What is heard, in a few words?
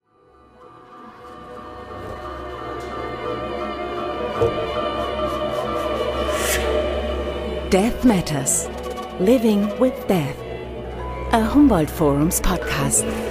Podcast EN; Death Matters